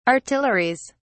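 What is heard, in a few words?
"artilleries", English, British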